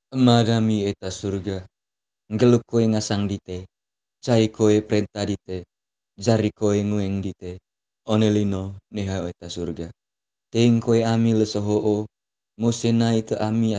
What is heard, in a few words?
manggarainese